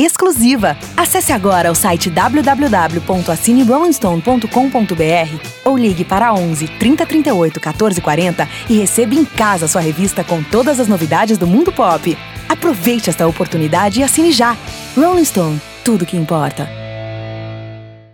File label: promo